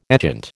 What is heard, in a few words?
"etchant", American